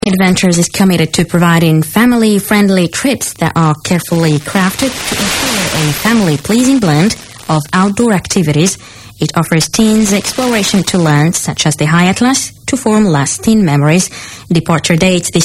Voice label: english; morocco